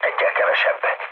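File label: player killed enemy, mood med, Hungarian; speech; War Thunder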